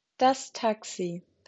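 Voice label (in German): "Taxi", German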